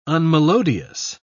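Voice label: "unmelodious", British English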